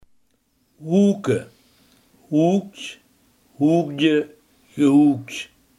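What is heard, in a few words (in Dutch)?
hurken, Limburgse gesproken woordenboeken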